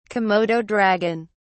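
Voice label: "komodo dragon", English, British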